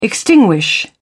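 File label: "extinguish", British English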